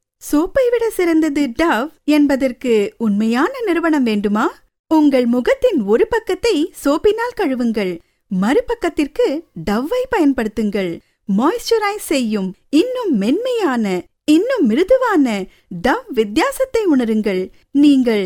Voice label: Tamil

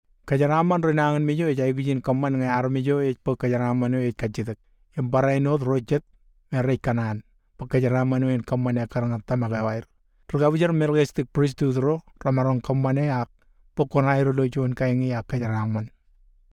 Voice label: Marshallese

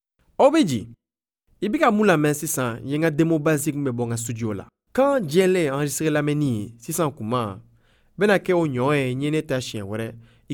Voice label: Mandinka

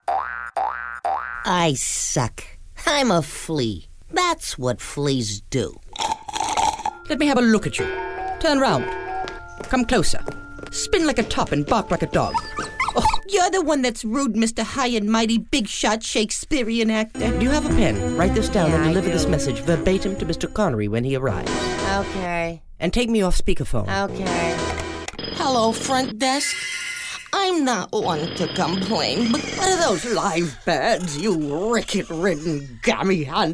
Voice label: US character

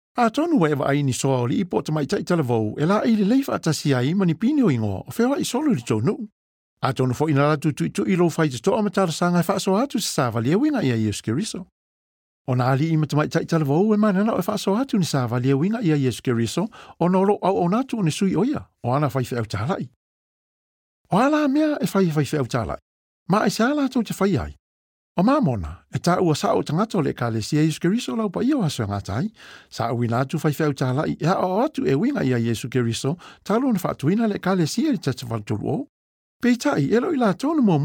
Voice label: SAMOAN narration

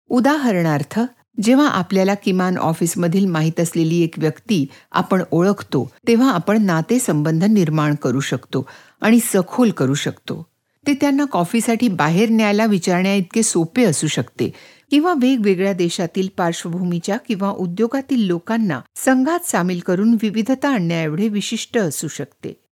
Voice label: Marathi elearning

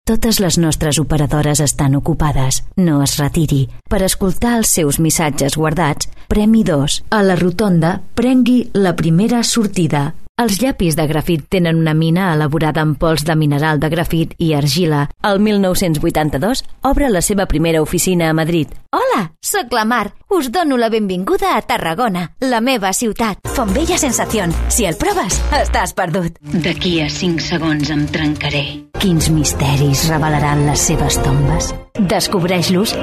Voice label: Catalan

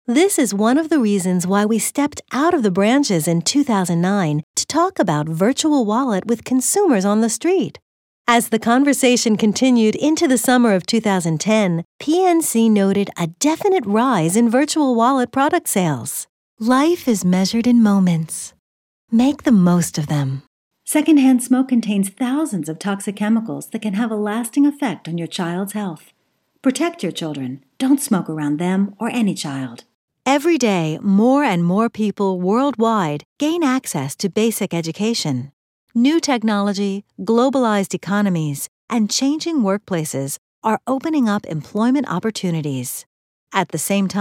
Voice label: Approachable Reads

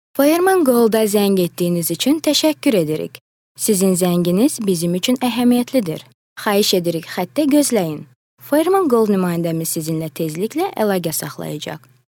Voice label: Azeri

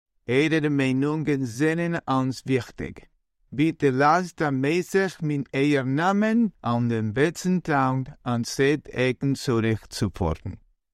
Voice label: Yiddish, telephony

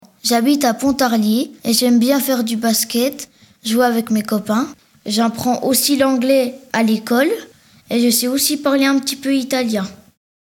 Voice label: FRENCH boy, children

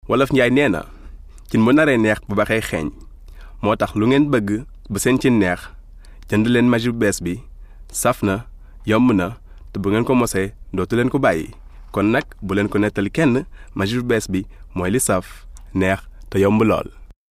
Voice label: Wolof

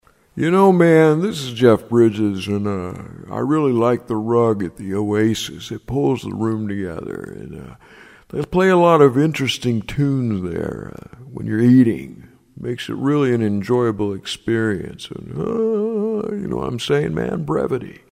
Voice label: Character; Jeff Bridges

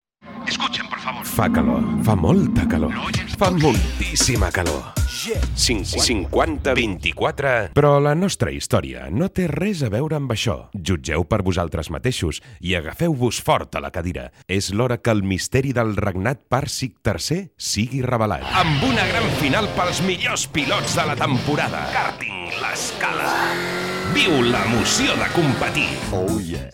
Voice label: Catalan

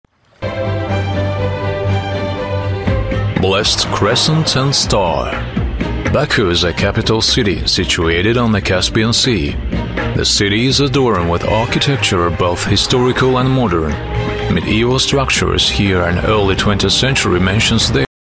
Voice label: AZERI

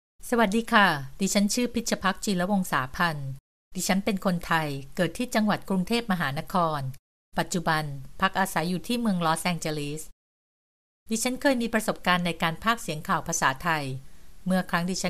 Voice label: Thai

